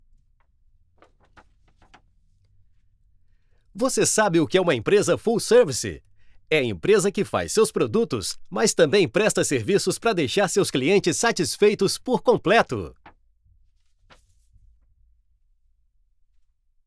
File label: Recording Studio Test